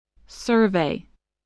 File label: "survey", English